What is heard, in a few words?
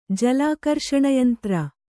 "ಜಲಾಕರ್ಷಣಯಂತ್ರ", kannada